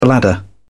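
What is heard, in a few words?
"bladder", British English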